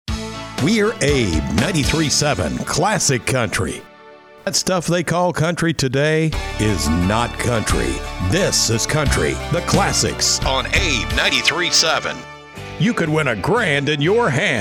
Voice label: english american promos